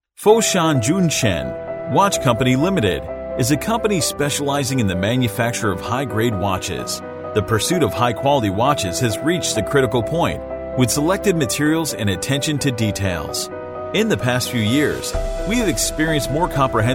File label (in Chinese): 男 制表企业宣传片; 佛山; 男6-高端大气 质感磁性